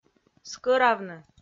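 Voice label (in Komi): "скӧравны", Komi-Zyrian, female; kpv audio dict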